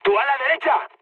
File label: right wing, mood high, speech; War Thunder